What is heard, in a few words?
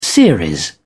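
"series", British English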